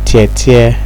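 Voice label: "teartear", Nigerian Pidgin, male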